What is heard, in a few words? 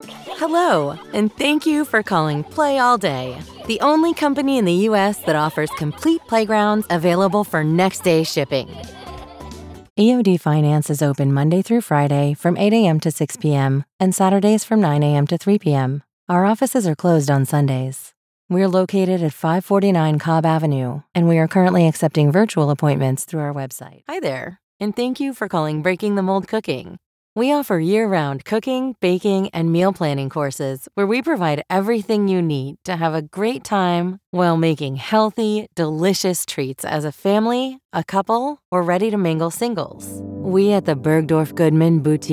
english american ivr